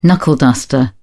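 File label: "knuckleduster", English, British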